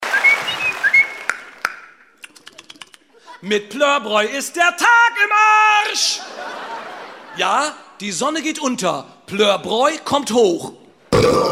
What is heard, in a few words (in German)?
Werbung; otto